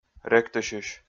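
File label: "ректысьысь", Komi, male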